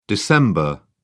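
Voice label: "dec", English, British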